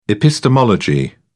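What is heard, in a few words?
"epistemology", British English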